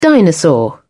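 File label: "dinosaur", British English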